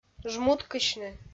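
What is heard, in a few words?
"жмуткысьны" Komi, female; audio dict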